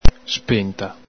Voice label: "spenta", Veneto